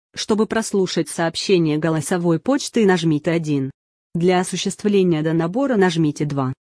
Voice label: Мое голосовое меню

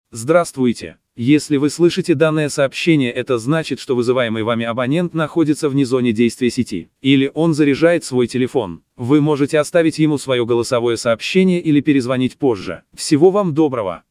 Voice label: Голосовая почта

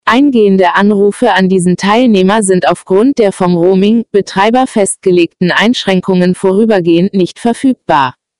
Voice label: уведомление НЕМ

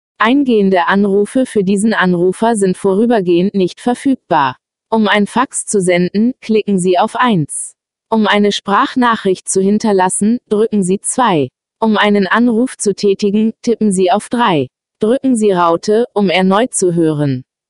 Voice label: меню нем

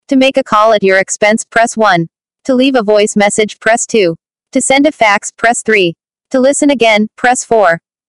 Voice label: меню АНГ

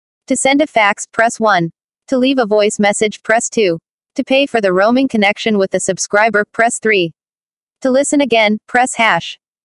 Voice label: меню АНГ

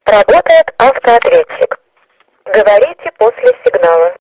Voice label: АОН РУСЬ АВТООТВЕТЧИК

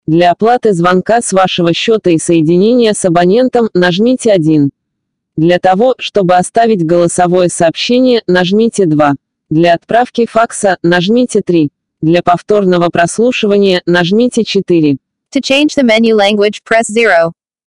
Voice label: меню РУС с выбором языка

